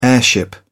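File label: "airship", British English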